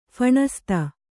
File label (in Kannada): "ಫಣಸ್ತ", Kannada